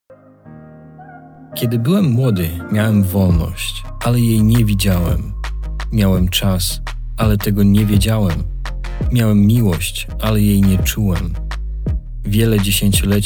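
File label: Polish, male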